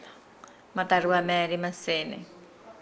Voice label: Nukuoro; quarter

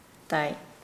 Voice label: "west", Nukuoro

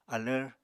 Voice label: "alvr", Apatani

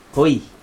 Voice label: "hoj", Chug, blood

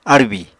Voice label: "árbì", Apatani

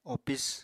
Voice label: "opis", Apatani; Material Culture

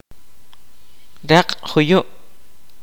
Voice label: kaqchikel; boar

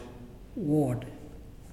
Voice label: Mouth, Muyuw; Lae Unitech

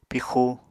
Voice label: "pixu", Apatani

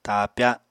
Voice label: "taapya", Apatani

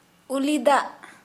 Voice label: Santali saliva

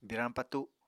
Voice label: "birañ patu", Apatani

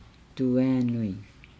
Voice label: "duaa nui", Nukuoro; Nukuoro Talking Dictionary

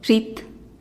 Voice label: "zhit" cat, teotitlan

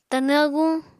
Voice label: "Tanw aguñ", apatani

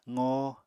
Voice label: "qoo", Apatani

